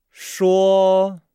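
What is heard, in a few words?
"shuo", Chinese; Nihao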